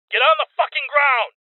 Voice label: Voices Order To Surrender Male